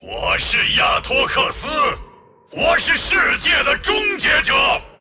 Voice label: move, zh